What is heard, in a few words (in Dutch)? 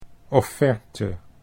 "offerte", Dutch